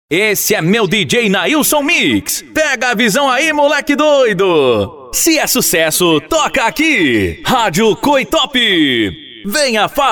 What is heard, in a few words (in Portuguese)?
vinhetas; São vinhetas sem efeitos sonoros de fundo, voz tratada, equalização e limpeza de ruídos